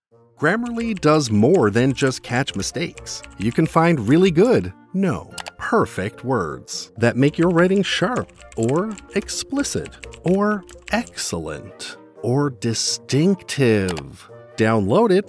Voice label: Commercial